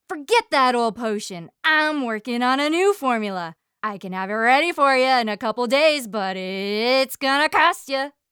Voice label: Character Upbeat Androgynous; American English voice-over artist